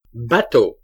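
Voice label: "bateau", French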